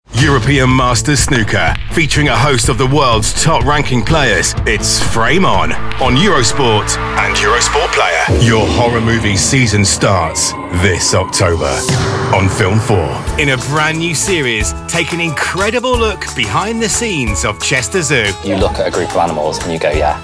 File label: TV Promo